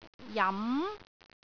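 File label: "jam2", Cantonese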